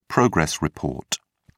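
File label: "progress report", British English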